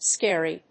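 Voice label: "scary", English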